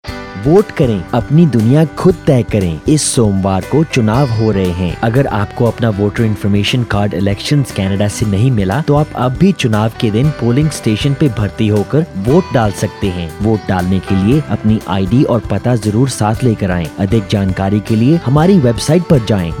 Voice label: election hindi, radio ad